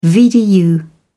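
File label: "vdu", British English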